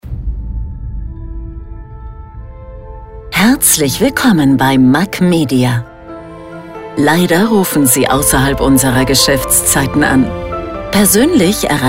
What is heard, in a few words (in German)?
AB Ansage DE; MackMedia